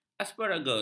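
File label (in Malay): "asparagus", Malay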